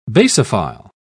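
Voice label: "basophil", British English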